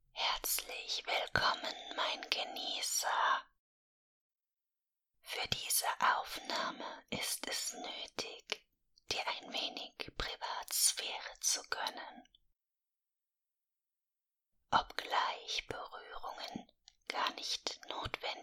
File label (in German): ASMR Erregung